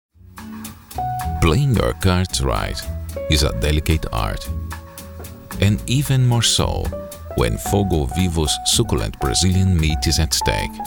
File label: English with Accent